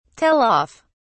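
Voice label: "tell off", British English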